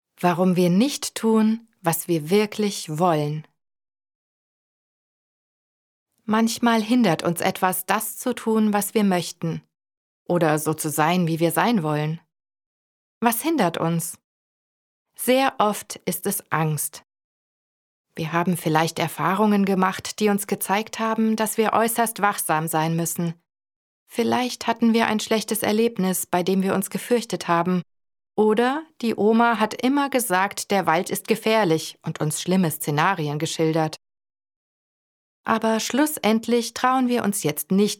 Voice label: german audiobooks